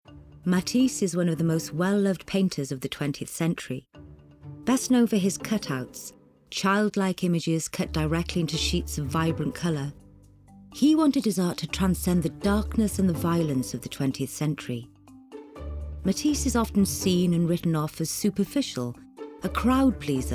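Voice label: Documentary; Matisse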